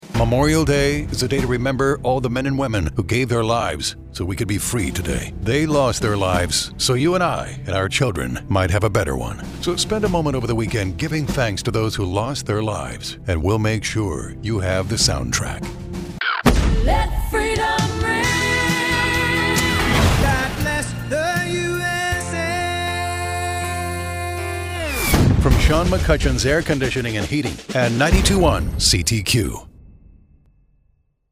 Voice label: promo; CTQ MEMORIAL DAY